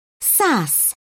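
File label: "sass", British English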